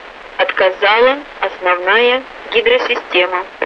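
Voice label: Russian speech, Mi-8MT RI65; Messages main hydraulics failure; DCS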